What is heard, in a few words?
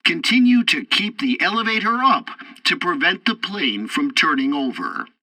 tutorial landing breaks, english; War Thunder